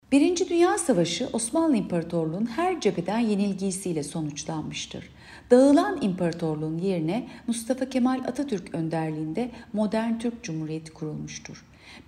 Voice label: turkce